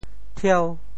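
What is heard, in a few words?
"thieu5", Teochew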